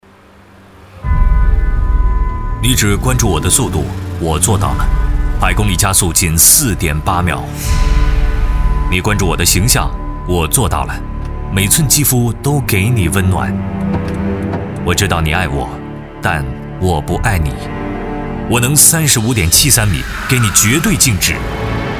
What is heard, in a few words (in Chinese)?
宝马BMW广告